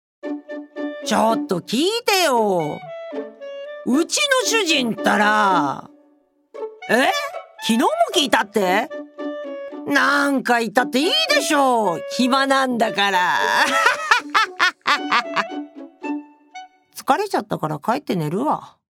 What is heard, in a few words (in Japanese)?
おばさん の 会話